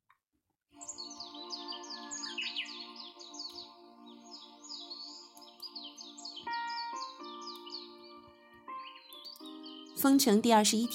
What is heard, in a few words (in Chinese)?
公交车新闻录音